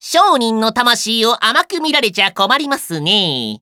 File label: victory jp